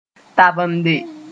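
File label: "tabende", Mooré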